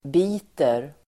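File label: "biter", Swedish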